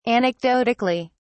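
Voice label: "anecdotically", British English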